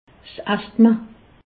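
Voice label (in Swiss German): "asthma", Haut Rhin; medecine; asthme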